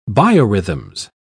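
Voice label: "biorhythms", British English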